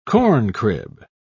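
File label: "corncrib", British English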